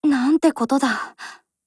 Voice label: LOWMOOD JP